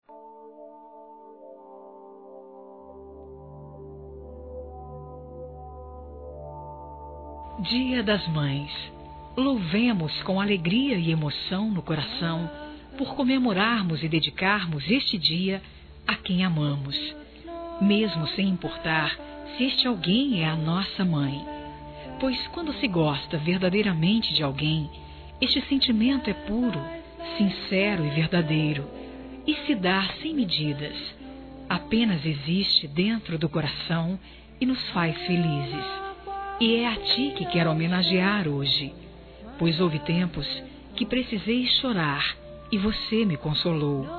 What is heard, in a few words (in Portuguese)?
CONSIDERAÇÃO F; Telemensagens 2017